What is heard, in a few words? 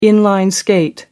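"in-line skate", British English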